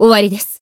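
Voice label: Voice finish, Japanese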